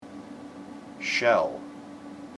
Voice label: "shell", IPA

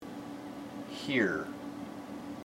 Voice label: "hear", IPA

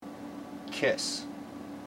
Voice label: "kiss", IPA

